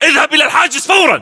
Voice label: order cover barricade, battle chatter, english

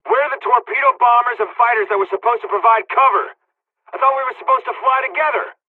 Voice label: dialog, hungarian; us midway; War Thunder

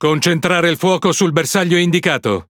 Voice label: It Speech Quick Commands Enemy Ship; World Of Warships

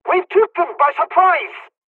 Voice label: japanese first wave, dialogs english; War Thunder

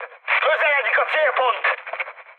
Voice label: near waypoint, mood high, speech hun; War Thunder

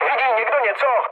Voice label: chat, speech Czech; War Thunder

